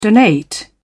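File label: "donate", British English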